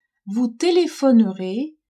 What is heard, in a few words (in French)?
"vous telephonerez", french